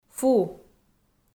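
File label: "фу", Komi, female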